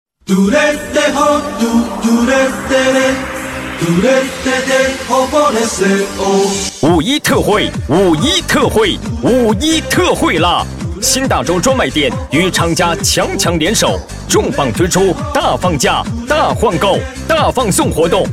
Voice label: 男 促销; 新大洲专卖店

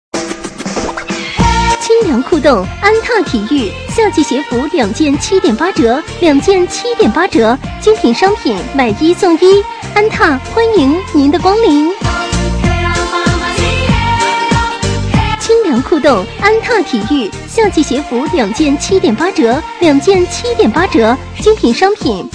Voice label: 女 促销, 体育; 安踏体育

